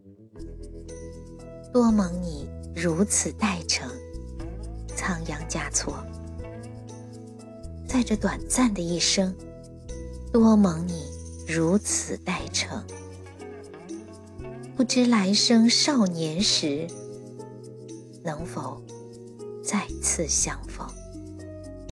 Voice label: 诗歌; 诗歌多蒙你如此待承

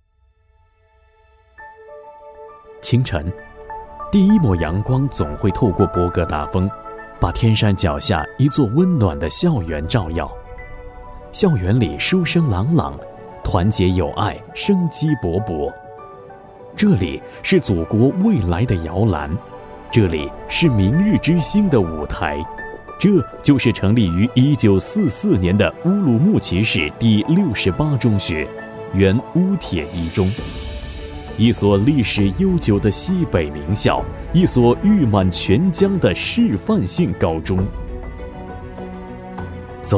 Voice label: 学校类专题片

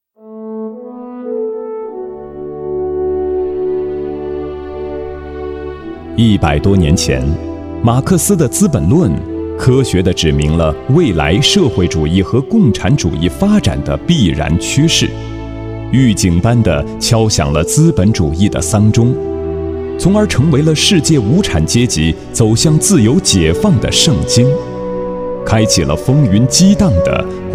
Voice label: 广播剧; 曙光上集

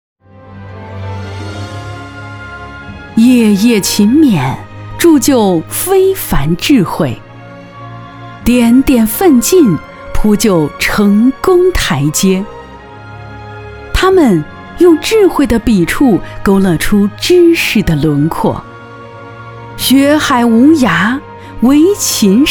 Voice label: 宣传片恢弘大气党政颁奖人物事迹, 女性知性配音, 青年（18-35）, 普通话, 女

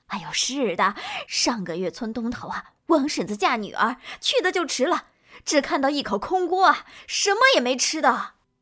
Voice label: 大妈 八卦

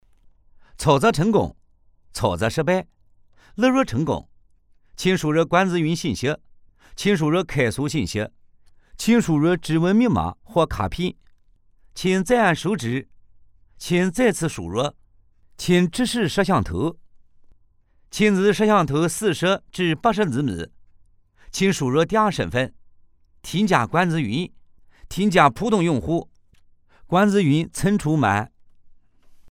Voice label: 方言 合肥 语音

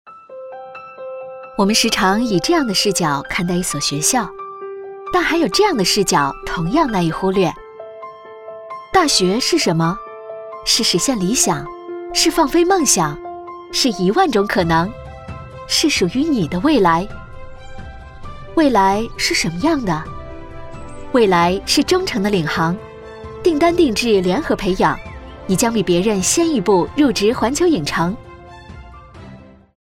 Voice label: 宣传片年轻朝气招生

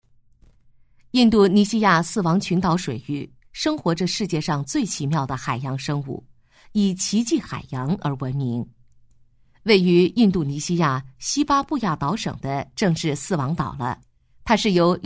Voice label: 记录解说; 印度尼西亚

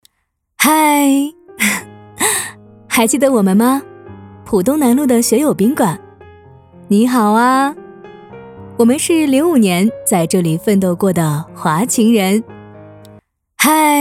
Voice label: 宣传片温柔讲述橘子

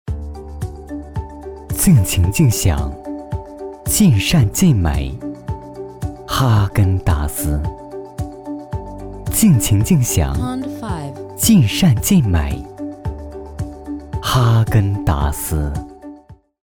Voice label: 广告样音

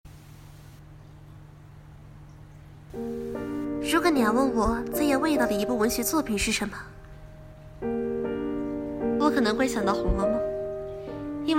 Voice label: 朗读者

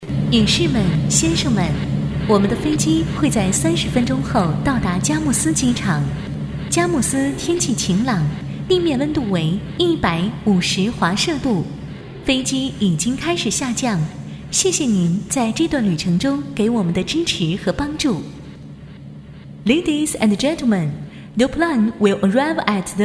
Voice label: 机场广播

